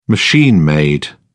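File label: "machine-made", British English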